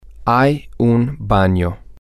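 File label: there is a bathroom, spanish, male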